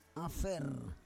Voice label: "afer", Roon

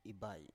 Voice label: "ibai", Biak

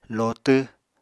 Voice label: "lotw", Apatani

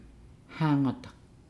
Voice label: "fishing", Nukuoro

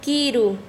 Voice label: Chanka, quechua; diente

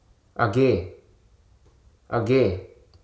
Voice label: "here", Hruso

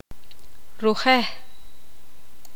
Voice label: poqomam; cola

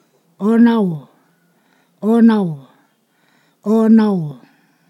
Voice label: "palm tree type", Ponosakan

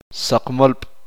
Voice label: "huevo", Uspanteko

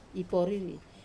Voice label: "poriri", break, Wamesa

